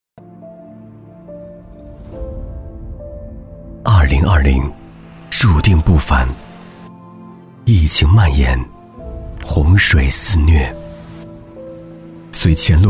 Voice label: 旁白正能量致敬奋斗者

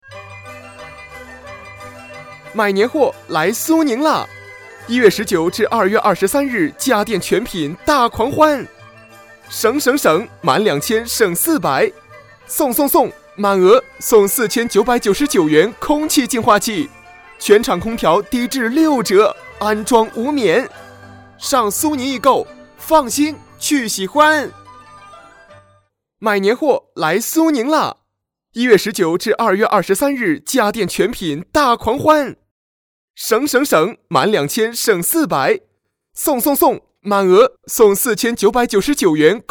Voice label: 促销广告

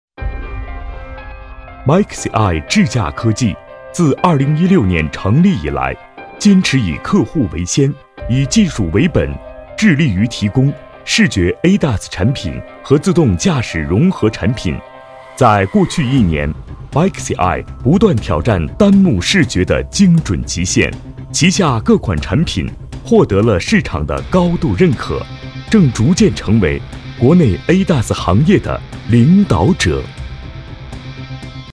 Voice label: 合成混音; MAXIEYE智驾科技合成混音

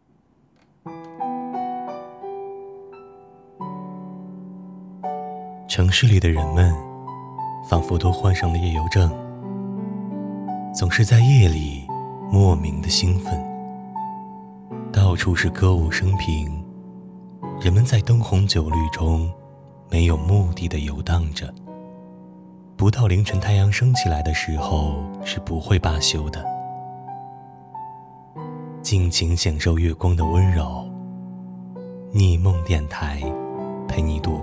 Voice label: 电台

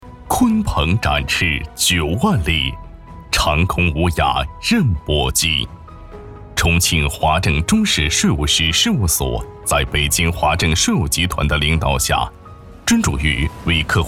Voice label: 大气磁性 男; B11【税务事务】大气磁性 男05; BB机配音

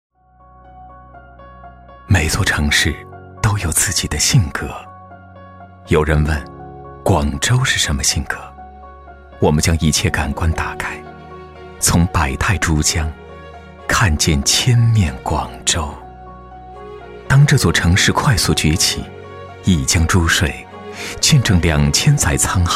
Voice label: 叙述感; 广州是什么性格

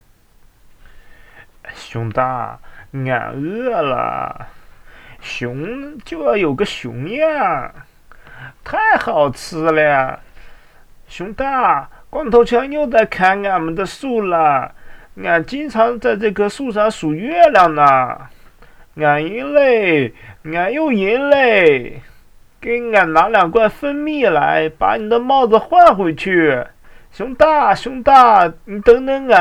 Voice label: 模仿; 熊二

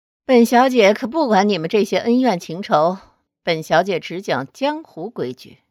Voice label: 御姐音

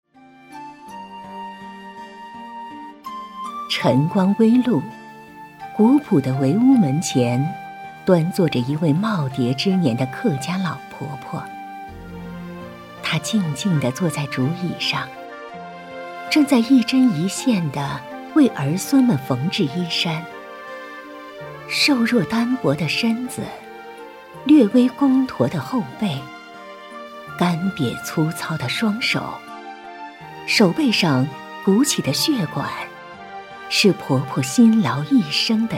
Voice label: 客家婆婆

